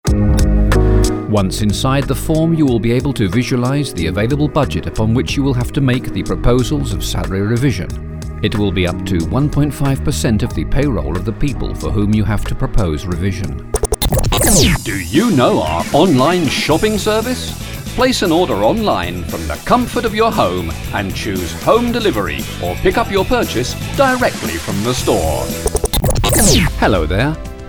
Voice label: uk, jingles; demo